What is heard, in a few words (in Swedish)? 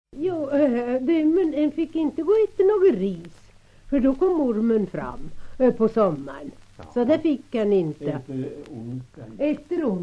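Tystberga Bälinge Södermanland, dialekter; Folkminnen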